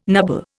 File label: "nubble", Indian